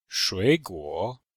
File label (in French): "shui3guo3", chinois; Cours de Chinois